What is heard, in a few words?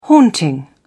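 "haunting", English, uk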